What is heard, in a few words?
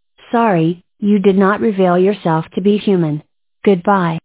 human voicemail